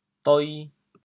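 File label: "doi2", Teochew, chaoyang; 底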